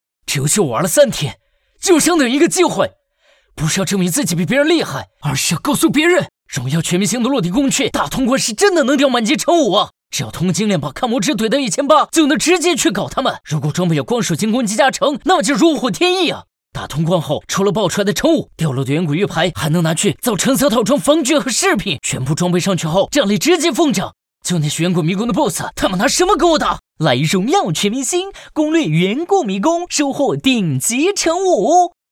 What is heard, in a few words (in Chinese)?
男 游戏 信息流广告